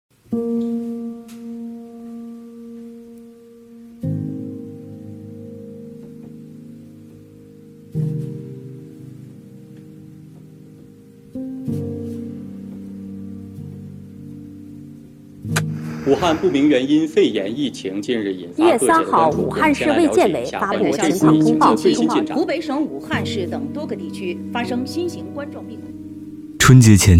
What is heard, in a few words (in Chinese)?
公益宣传片; 武汉新冠肺炎公益宣传片